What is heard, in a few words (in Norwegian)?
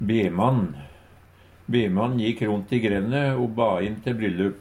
"bemann", Numedalsmål; Substantiv hankjønn